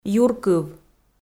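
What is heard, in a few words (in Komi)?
"юркыв" Komi, female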